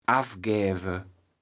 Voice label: "affgäwe", kaevels platt

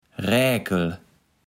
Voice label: "Räkel", Platt

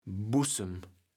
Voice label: "Bussem", kaevels platt

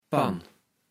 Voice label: "Pann", Platt

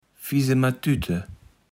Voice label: "Fisematütte", Platt

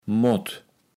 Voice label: "mott", kaevels platt

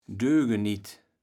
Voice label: "Dögeniet", kaevels platt

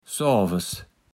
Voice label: "soaves", Platt

